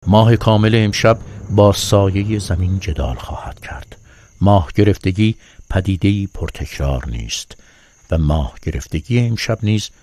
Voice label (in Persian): نمونه صدای آقای